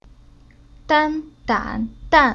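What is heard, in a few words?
"Dan", Chinese syllable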